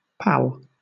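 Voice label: "pal", British English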